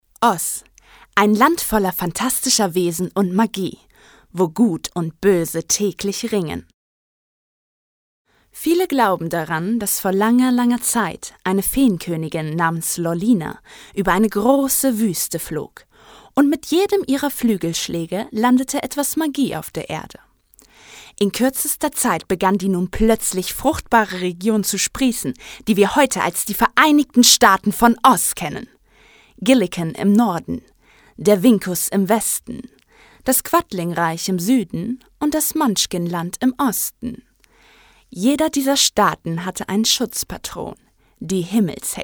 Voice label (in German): hoerbuch deutsch